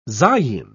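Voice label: "zayin", British English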